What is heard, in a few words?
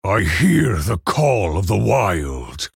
male orc ogre start